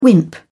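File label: "wimp", British English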